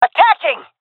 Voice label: attacking, fem; player vo; Counter Strike Model Pack; CS:GO

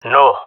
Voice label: negative, jungle male; player vo; Counter Strike Model Pack; CS:GO

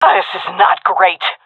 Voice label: scared, fem; vo; agents; Source Model Packs; Counter Strike Model Pack; CS2

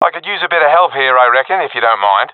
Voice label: request backup, professional epic; vo; Source Model Packs; Counter Strike Model Pack; CS2

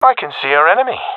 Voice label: sees enemy, professional epic; Counter Strike Model Pack; CS:GO

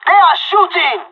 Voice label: radio taking fire; Counter Strike Model Pack; CS:GO

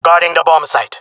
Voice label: guarding bombsite, jungle male; vo; agents; Source Model Packs; Counter Strike Model Pack; CS2

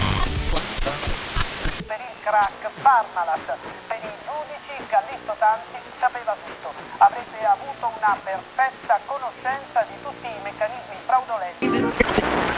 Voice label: italian radio, ambient chatter; Counter Strike Model Pack; CS:S

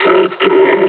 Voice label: pain; combine charger; Half Life Alyx

